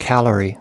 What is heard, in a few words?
"calorie", us